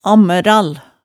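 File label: "ammæral", Hemsedal dialect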